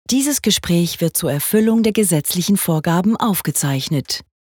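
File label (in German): TVA DE CH, Swiss German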